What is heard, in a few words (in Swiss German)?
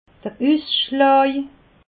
"usschlaa", alsace